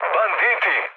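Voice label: Serbian enemy detected mood med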